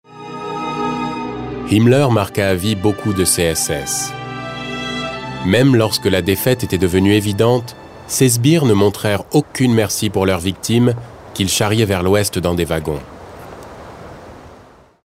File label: fr documentary